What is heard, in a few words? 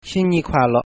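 new year, Shanghainese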